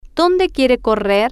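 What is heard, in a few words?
where does he want to run, Spanish, female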